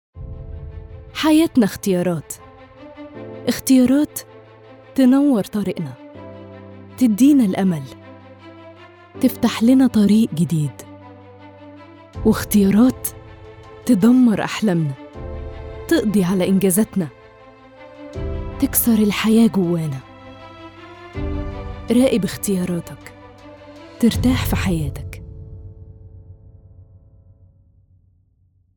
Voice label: arabic commercial; Ägypten; arabische Synchronsprecherin mit Sitz in Ägypten